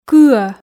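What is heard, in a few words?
"кыӧ", Komi, female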